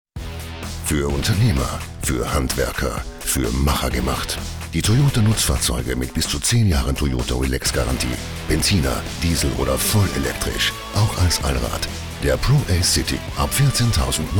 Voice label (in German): Mann OFF